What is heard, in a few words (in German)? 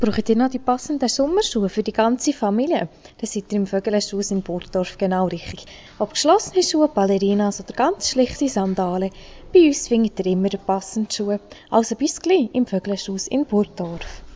Werbespot shoes; vögele